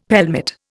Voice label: "pelmet", Indian; Dictionary